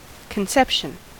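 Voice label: "conception", American English; pronunciations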